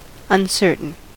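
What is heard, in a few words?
"uncertain", American English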